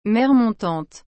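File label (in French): "mer montante", French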